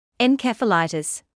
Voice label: "encephalitis", medical english